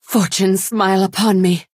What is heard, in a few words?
Redguard female implore